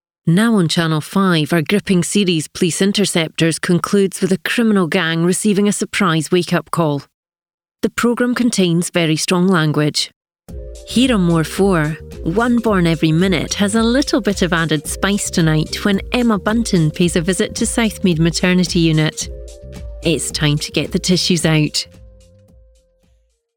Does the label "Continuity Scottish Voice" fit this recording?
Yes